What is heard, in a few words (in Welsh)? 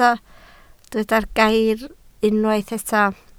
"gair" Welsh